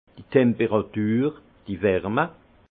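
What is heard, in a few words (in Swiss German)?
"temperatur", Alsace Haut Rhin; vin; temperature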